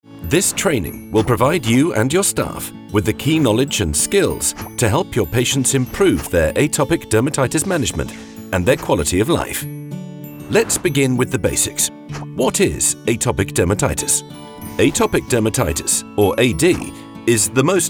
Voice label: male british english ad comercial; ideasonora

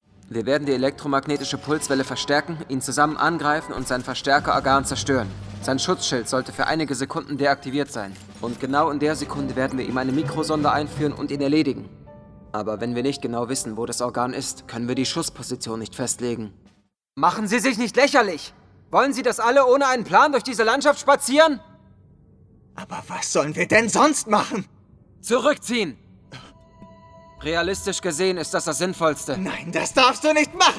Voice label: male german acting; ideasonora

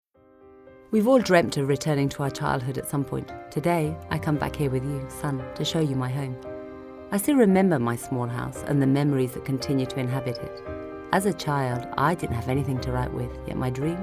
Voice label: female non native english ngo comercial

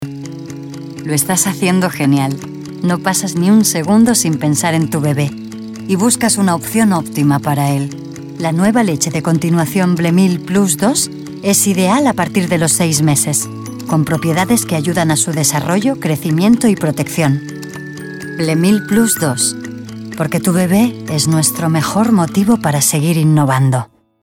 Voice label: female spanish comercial; ideasonora

